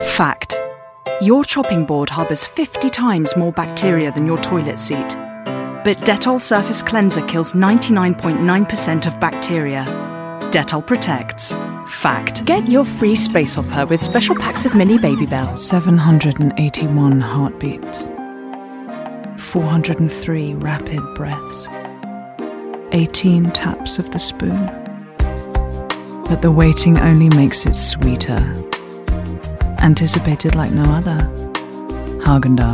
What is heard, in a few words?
Commercial